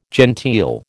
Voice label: "genteel", American